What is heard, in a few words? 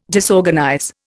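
"disorganize", Indian English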